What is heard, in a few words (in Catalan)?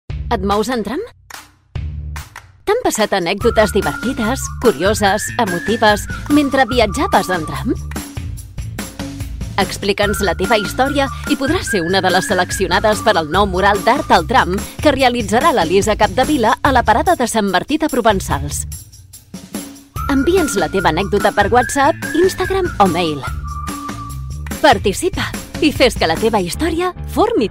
catala corporatiu veu propera i dinamica; reclaim